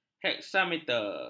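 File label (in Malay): "heksameter", Malay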